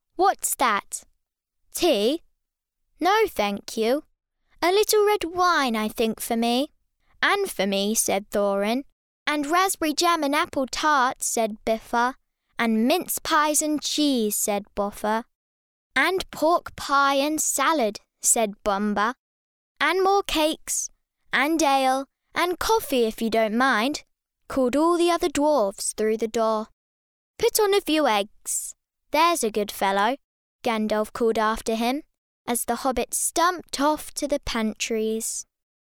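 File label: English British, girl, child voice over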